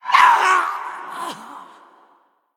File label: Female Night Elf Death